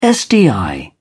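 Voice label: "sdi", English, British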